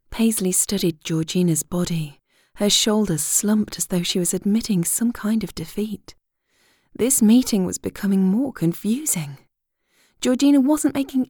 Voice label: Audiobook Romance